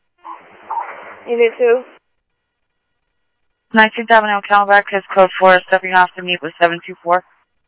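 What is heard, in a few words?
Light Rail Security Light Rail, scanner audio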